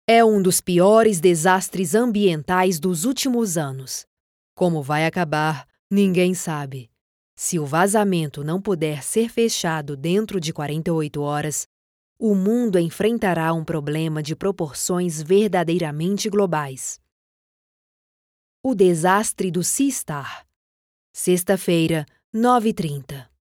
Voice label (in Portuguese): Portuguese Brazilian documentaries